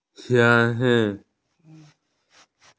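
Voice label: "eggplant", Mandarin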